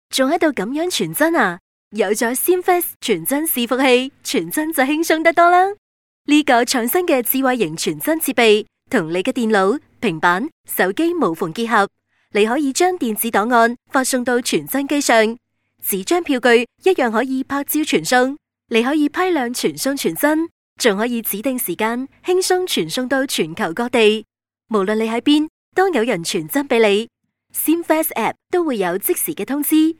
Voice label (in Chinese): 粤女 产品介绍 傳真伺服器; CimFAX